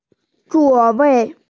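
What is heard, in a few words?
"zuo4wei4", Mandarin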